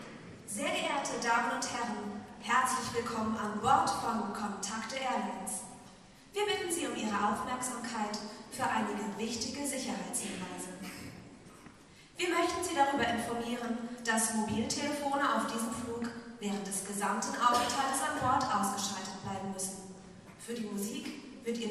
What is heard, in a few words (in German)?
Flugzeugansage